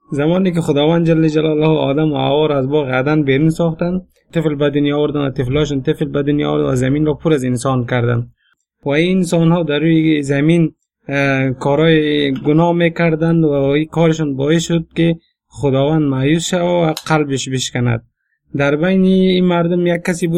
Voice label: dari, bible stories; tofan noah

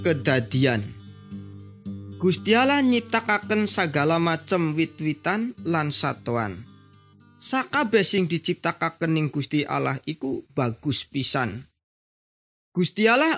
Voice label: good news jawa indramayu, javanese bible

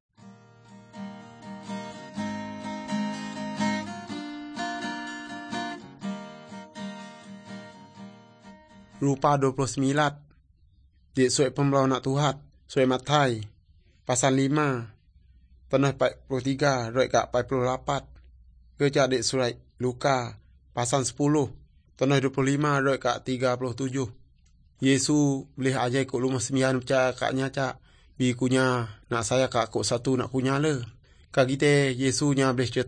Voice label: good news, urak lawoi

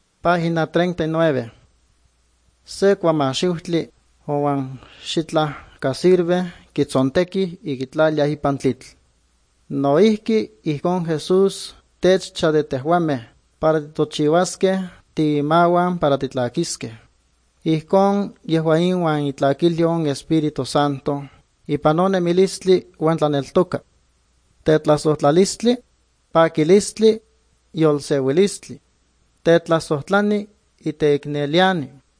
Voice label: good news, nahuatl guerrero chilapa; guerrero nahuatl the bible league nt drama

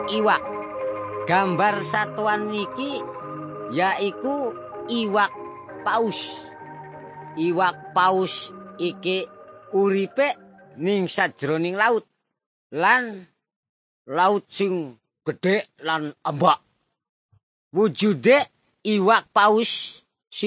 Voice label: indramayu lajer; parables about animals; javanese; bible

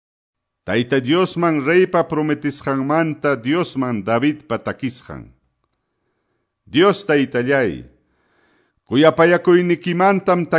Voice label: salmos, quechua ayacucho

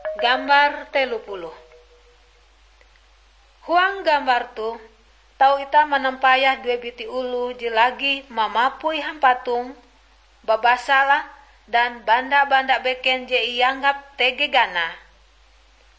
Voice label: kabar bahalap good news ngaju, drama

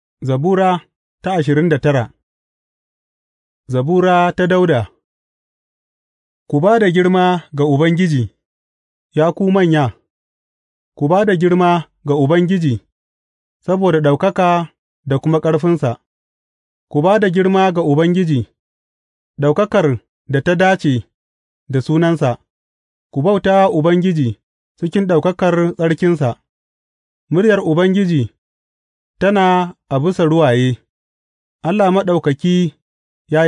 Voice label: hausa bible drama, psalms; hausa bsn nt drama

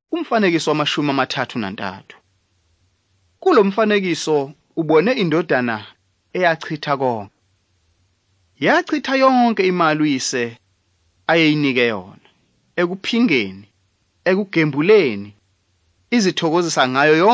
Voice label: zulu bible; good news

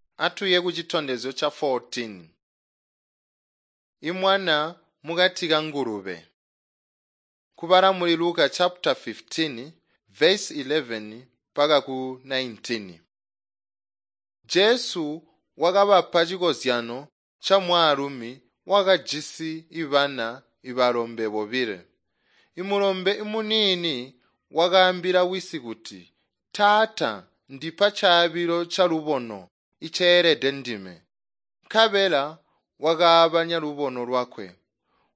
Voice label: tonga plateau, drama; chitonga bsz nt drama

